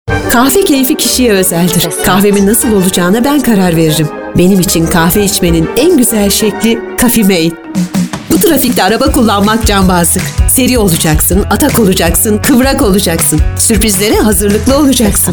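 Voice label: Turkish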